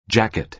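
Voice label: "jacket", American English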